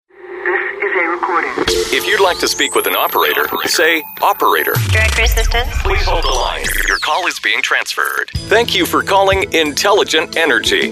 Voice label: english international ivr; Voz masculina; neutral en inglés norteamericano; sin acento regional

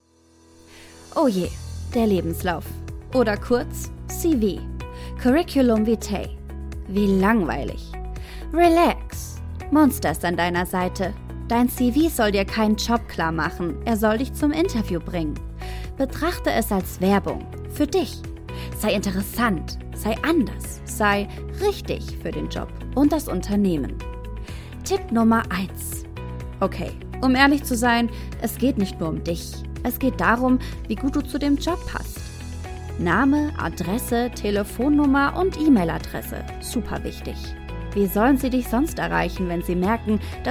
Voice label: German explainer videos

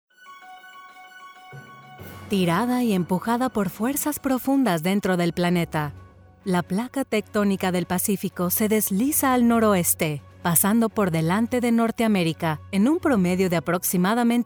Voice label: spanish mexican narration

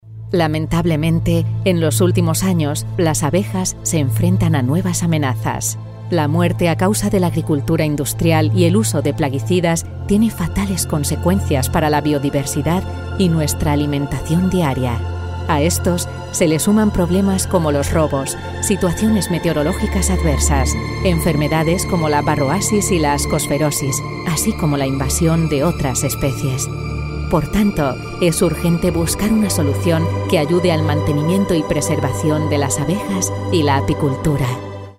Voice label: spanish narration

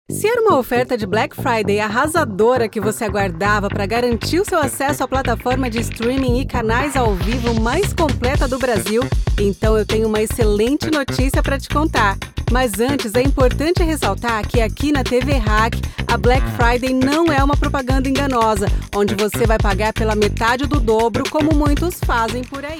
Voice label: portuguese brazilian promos

